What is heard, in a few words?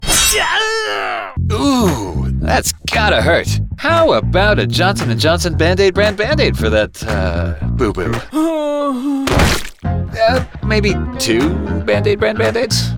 Commercial; Band-Aids